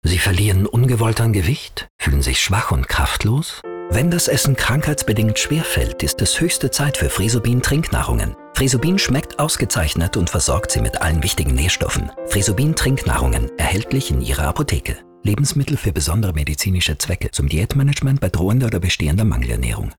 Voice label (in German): HF Werbung; Fresubin